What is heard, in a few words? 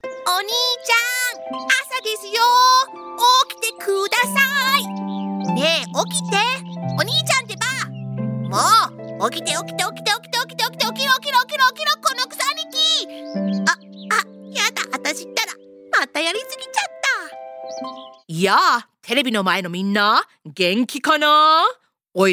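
Japanese Character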